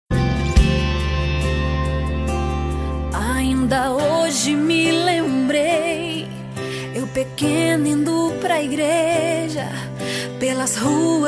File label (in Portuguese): DIA DAS MAES EVANGELICO MASC, telemensagem dia das maes evangelica